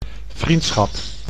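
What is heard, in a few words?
"vriendschap", Dutch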